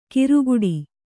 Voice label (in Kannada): "ಕಿರುಗುಡಿ", Kannada